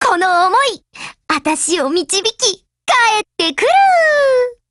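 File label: OPERATION OVER Japanese, Voice; GF Alarm; F1 Mod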